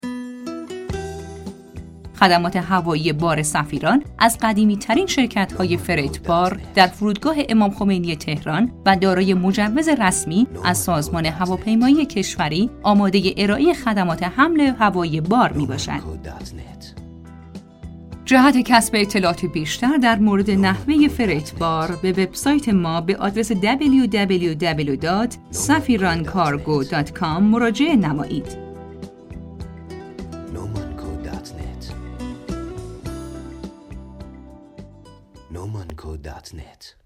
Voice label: ضبط صدای تبلیغاتی با آهنگ انتظار; سفیران

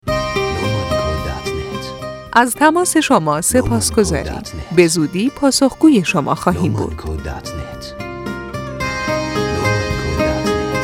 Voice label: صدای گویا برای زمان انتظار با صدای گوینده خانم

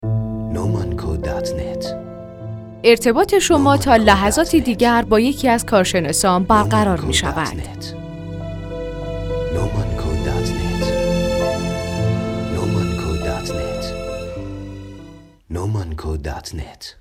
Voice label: صدای تلفن گویا برای زمان انتظار با صدای گوینده خانم

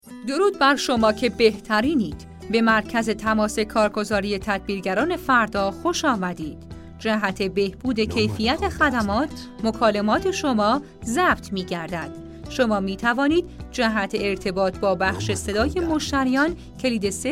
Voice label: نمونه فایل ضبط شده تلفن گویا برای منشی تلفنی

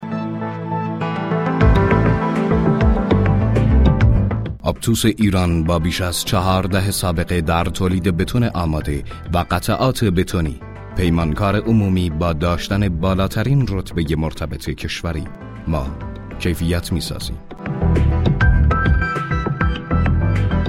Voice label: نمونه صدای گوینده حرفه ای; آپتوس ایران

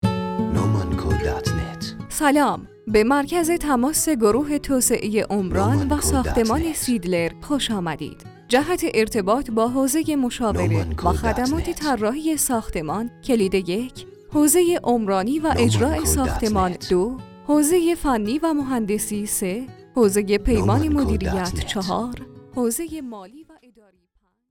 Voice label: نمونه صدای گوینده حرفه ای اجرا شده در استودیو; نومان

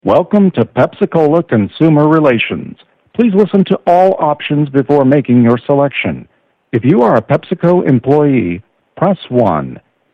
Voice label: نمونه متن ivr برای ساعات کاری; شرکت پپسی کولا

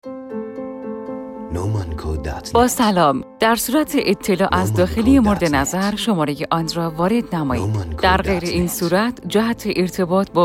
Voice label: ضبط صدای خوش آمدگویی منشی سانترال با گوینده خانم

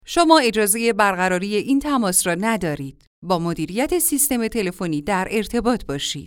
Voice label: نمونه پیام فارسی شده سیستم تلفنی 3cx با صدای گوینده

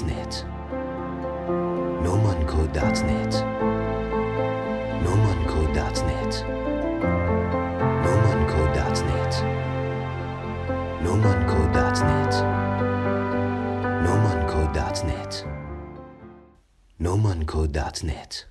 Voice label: صدای سانترال برای خوش آمدگویی با گوینده خانم

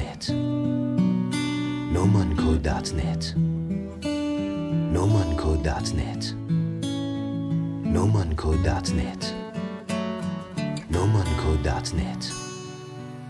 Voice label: فایل صوتی اعلام نفر چندم در صف انتظار با گوینده بانو

